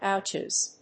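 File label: "Ouches", English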